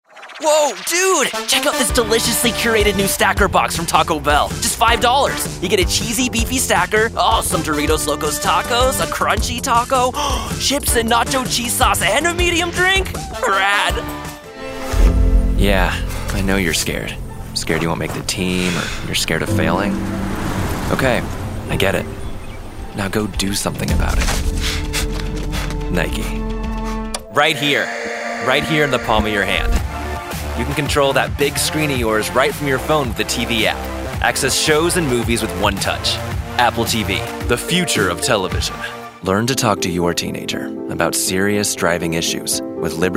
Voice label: Commercial